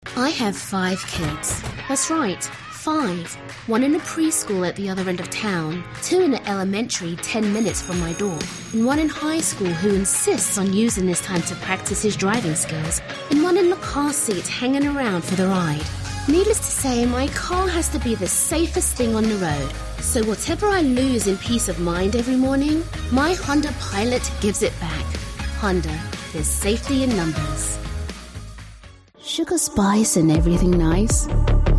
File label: english british automotive; voice actors